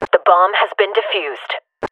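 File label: bomb defused, announcer, radio female; Counter Strike Model Pack; CS2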